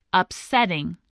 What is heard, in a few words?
"upsetting", English